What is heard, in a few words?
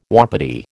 "wapiti", American